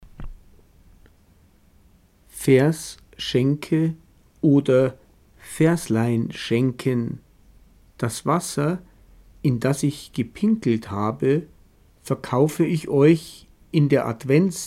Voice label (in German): hochdeutsch; Veasalschänggn